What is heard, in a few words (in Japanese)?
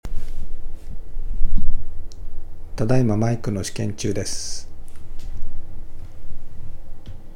iPadPro外付